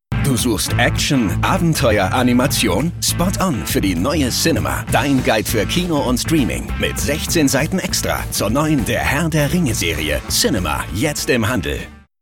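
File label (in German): Cinema, Spots, Männer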